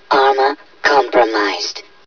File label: vox armor gone; fvox; blizzard